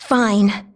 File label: Female; baldurs1